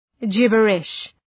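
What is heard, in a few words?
"gibberish", English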